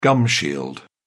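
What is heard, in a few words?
"gumshield", British English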